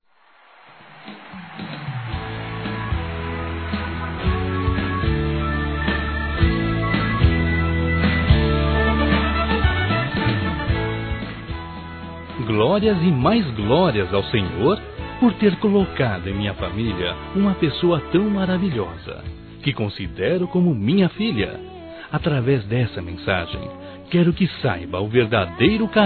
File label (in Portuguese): Nora Masculino, Telemensagens Dia das Mães; Telemensagens 2017